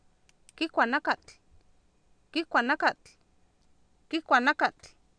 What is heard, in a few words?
kIkwa nAkatl, Oapan minimal pairs female; Oapan minimal pairs 2000